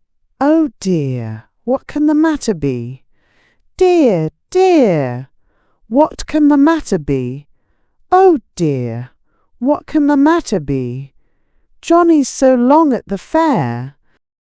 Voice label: Oh Dear fem brit